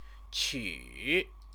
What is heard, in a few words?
"qu", Chinese; 取; collect; verb